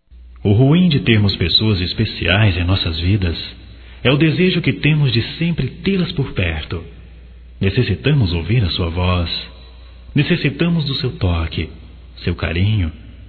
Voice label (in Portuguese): Despedida Geral Masculino; Despedidas e boas vindas; Telemensagens 2017